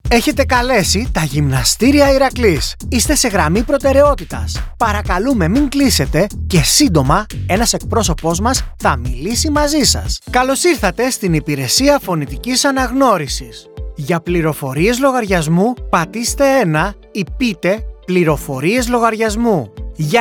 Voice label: greek ivr